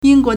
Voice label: "ying1 guo2", Chinese